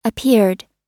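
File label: "appeared", US English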